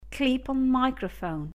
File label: clip on microphone; LAVALIER (MICROPHONE or LAV); LAPEL MICROPHONE (MIC)